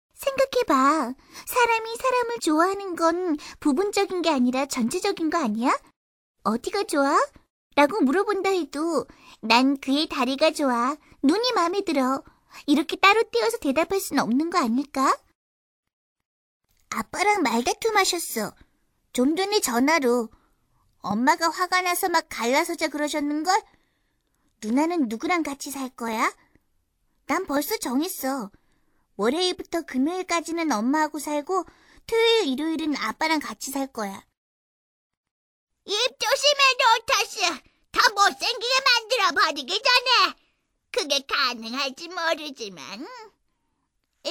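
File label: Korean, female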